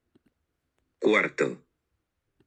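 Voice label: "cuarto", Spanish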